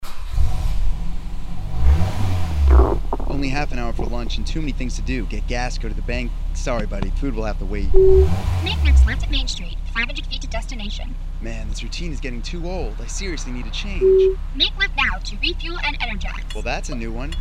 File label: radio ad